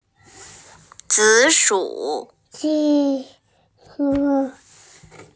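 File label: "zi3shu3", Mandarin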